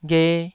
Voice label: "ghe2", Taiwanese syllable